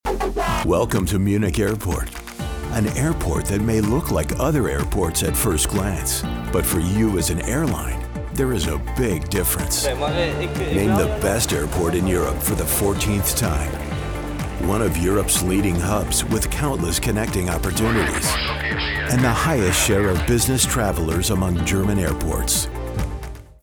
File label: Commercial; Male Voice Talent